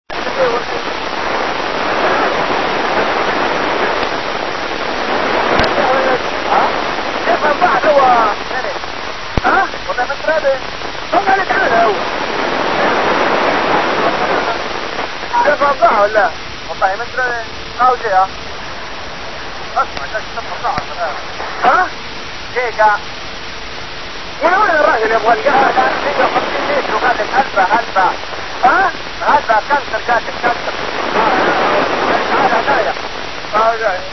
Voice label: PHONE 145695 Es FM